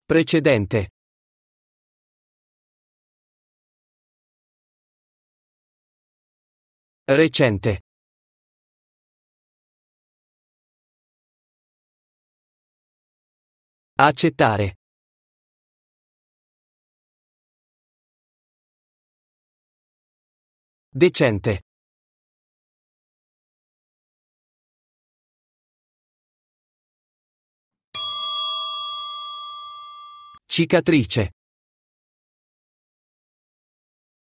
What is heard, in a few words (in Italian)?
dettato pronuncia c g sc